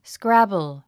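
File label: "scrabble", English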